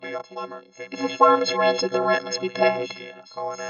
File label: Vocoded Speech in Babble